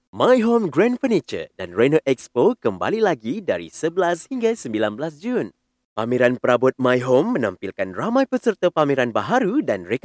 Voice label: Malay, Male